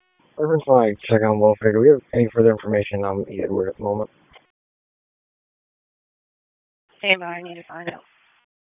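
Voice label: Police Southeast District Dispatch; Raleigh; wake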